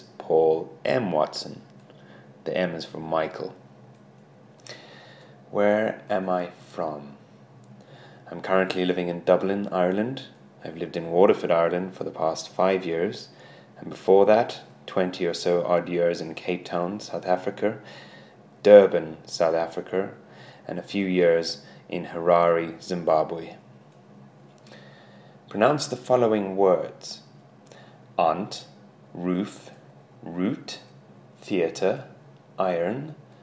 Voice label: accent tag

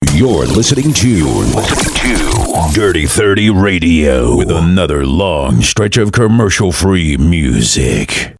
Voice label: radio promo

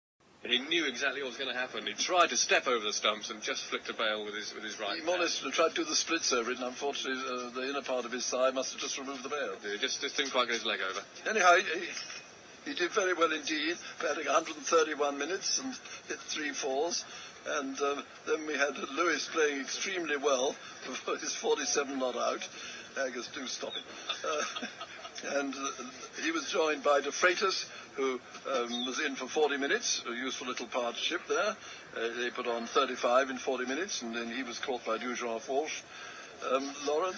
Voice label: funny cricket commentating

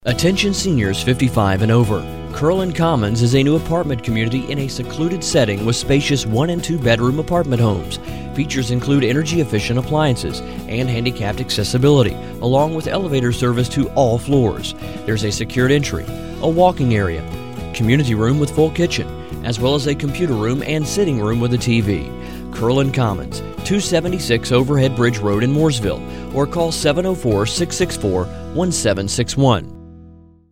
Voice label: radio commercial; Curlin Commons

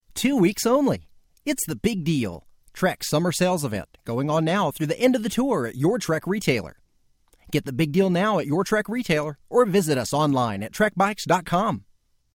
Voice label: commercial; trek big deal 2011

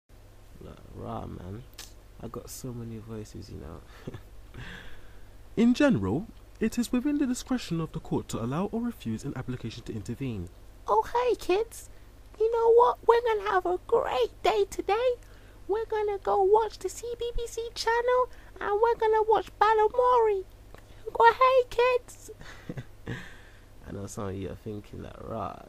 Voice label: police and granny voice

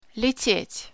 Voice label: "Fly" In Russian

